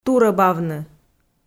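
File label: "турӧбавны", Komi, female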